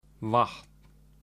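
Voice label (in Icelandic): "vatn", Icelandic; matarhefti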